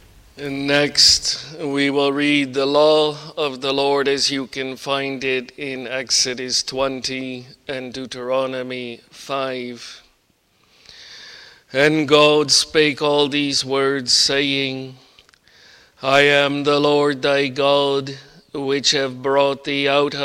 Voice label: Bible Reading; Jesus' Mercy to One Taken with Palsy (2)